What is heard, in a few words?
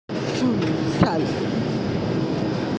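"shu1cai4", Mandarin Chinese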